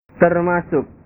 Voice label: "termasuk", bahasa